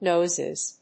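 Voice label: "Noises", English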